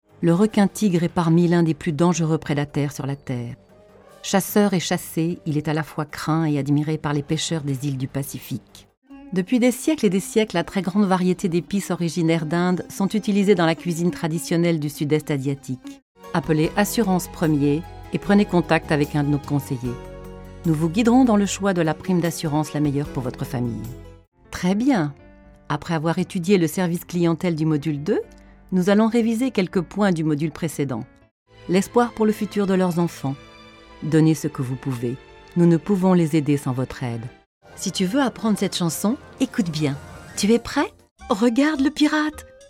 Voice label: FR Megamix